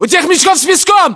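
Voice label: landmark near sandbags, Russian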